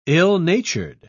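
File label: "ill-natured", English, British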